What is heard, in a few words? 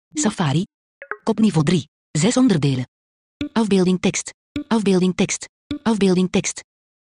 voiceover